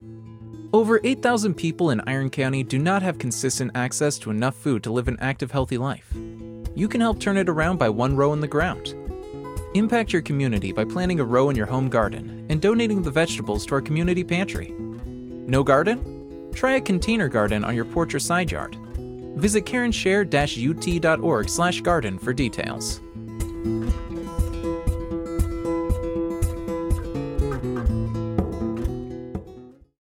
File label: Ad Voice; Spotify; Garden